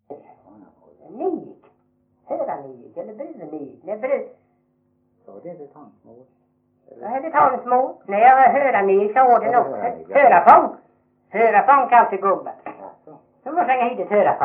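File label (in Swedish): Veddige Halland, dialekter; Folkminnen; Svenska sagor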